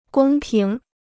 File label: "公平", Chinese